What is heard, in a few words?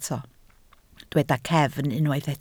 "cefn", Welsh